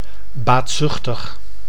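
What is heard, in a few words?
"baatzuchtig", Dutch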